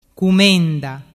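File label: "cumenda", Vernazzese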